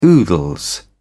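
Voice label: "oodles", British English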